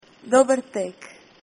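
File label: bon appetit, Croatian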